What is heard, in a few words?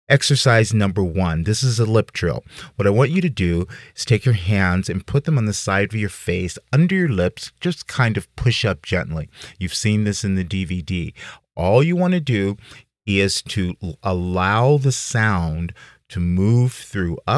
male introduction